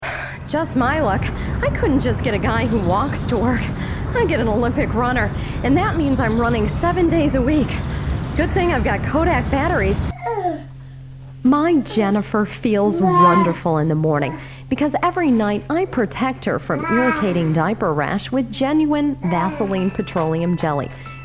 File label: Voice Over